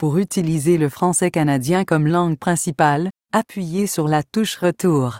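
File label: Voice Over Instructions French Canada; Language Chooser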